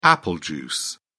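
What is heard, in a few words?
"apple juice", British English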